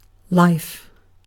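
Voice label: "life", British English; pronunciations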